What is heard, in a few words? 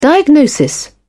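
"diagnosis", British English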